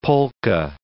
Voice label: "polka", English